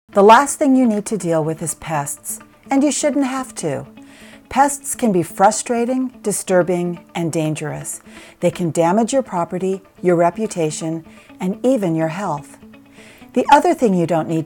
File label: FEMALE VOICE, narration